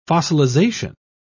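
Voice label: "fossilisation", English, British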